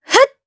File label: Vox Attack jp; kingsraid